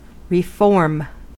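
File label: "reform", American English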